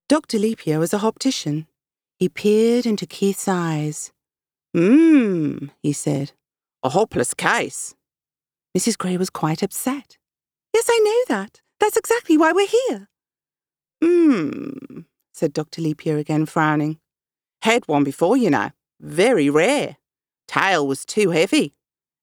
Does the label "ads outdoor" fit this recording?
No